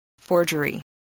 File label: "forgery", English voice, spelling